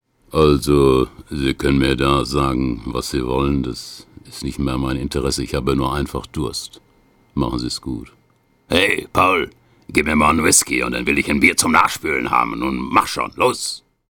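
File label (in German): western hörspiel, sprecher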